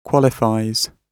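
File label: "qualifies", British English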